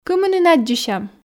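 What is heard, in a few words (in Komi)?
кымынын аддзысям, Komi, female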